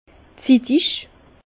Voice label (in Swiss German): "zittig", Bas Rhin